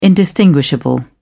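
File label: "indistinguishable", British English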